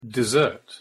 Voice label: "dessert", pronunciation en